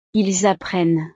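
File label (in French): "ils apprennent", French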